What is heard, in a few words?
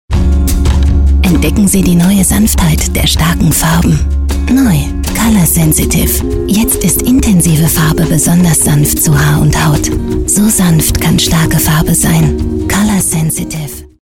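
German, female, medium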